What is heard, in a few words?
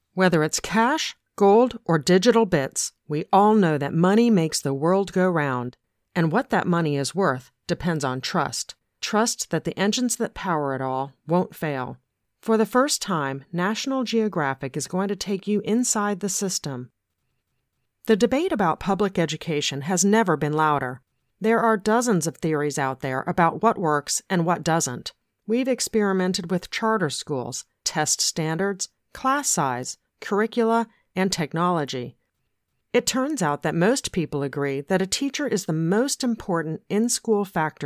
USA